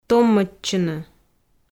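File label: "томмӧдчыны", Komi, female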